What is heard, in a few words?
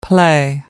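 "play", British English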